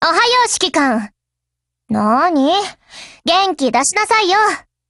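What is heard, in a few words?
Japanese, Voice; GF Alarm; PP19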